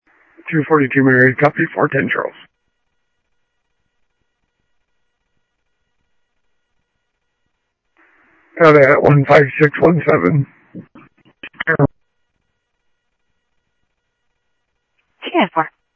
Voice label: Central Sheriff Central, scanner audio; MCSO Central; ThompsonPeakScot

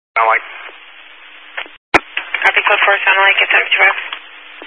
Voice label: Highway Patrol, Phoenix Metro East Area, scanner

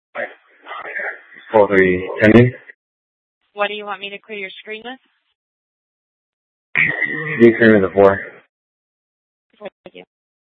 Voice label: Scottsdale PD Sth Dispatch, scanner

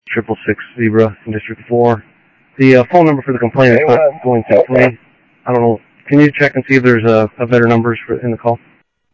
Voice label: Info Sheriff Common, scanner audio trunk

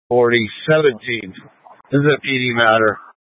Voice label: Fire Dispatch

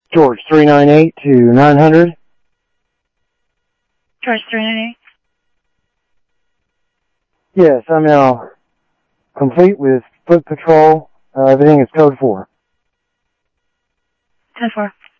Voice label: Security, scanner audio

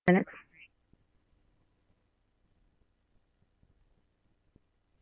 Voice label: Phoenix Fire, scanner; RWC; H-Scottsdale

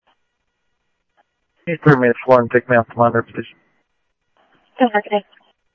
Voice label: Police, scanner audio

